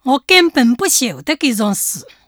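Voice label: nanchang, Chinese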